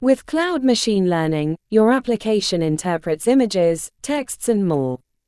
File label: en gb; standard c